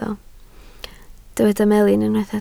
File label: "melin", Welsh